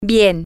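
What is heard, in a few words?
really, Spanish, female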